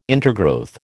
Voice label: "intergrowth", American

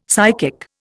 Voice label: "psychic", Indian; Dictionary

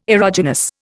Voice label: "erogenous", Indian

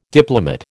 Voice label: "diplomate", American

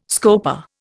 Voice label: "scopa", Indian

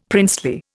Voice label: "princely", Indian; Dictionary

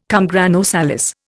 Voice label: "cum grano salis", Indian

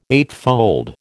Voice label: "eightfold", American

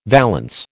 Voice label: "valance", American

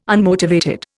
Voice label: "unmotivated", Indian

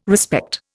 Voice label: "respect", Indian

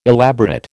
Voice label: "elaborate", American

